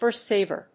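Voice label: "perceiver", English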